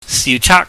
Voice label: "siuchaak", Cantonese